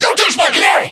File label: hurt